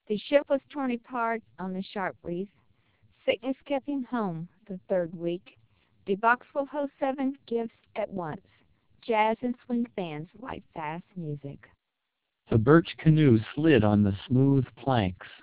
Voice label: American, speech, TWELP 1600 bps